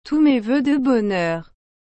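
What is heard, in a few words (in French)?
"Tous mes vœux de bonheur", French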